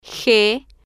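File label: "g", spanish, female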